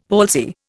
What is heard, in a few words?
"palsy", Indian; Dictionary